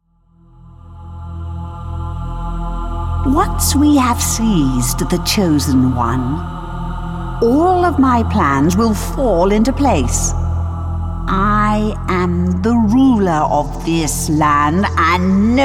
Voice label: Evil Queen